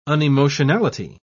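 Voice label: "unemotionality", British English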